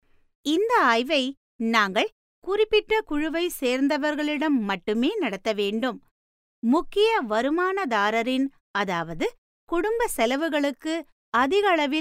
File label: TAMIL FEMALE